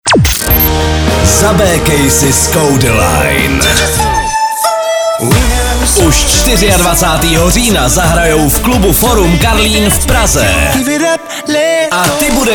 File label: liner